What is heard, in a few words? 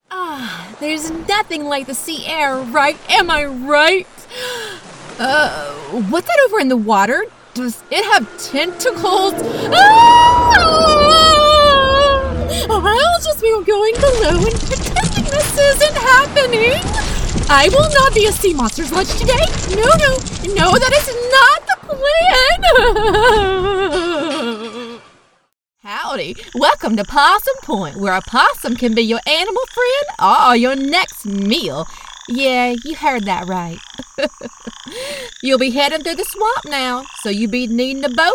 Character Demo Adult